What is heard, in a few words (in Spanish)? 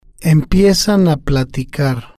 "empiezan a platicar", Spanish